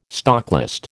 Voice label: "stocklist", American

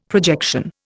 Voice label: "projection", Indian; Dictionary

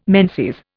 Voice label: "menses", Indian

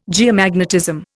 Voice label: "geomagnetism", Indian

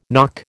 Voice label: "nock", American

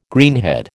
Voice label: "greenhead", American

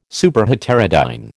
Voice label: "Superheterodyne", American

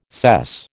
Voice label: "sess", American

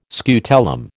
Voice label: "scutellum", American

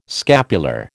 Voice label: "scapular", American

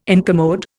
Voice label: "incommode", Indian

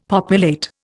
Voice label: "populate", Indian; Dictionary

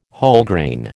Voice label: "Wholegrain", American

